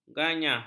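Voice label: "ganyah", Malay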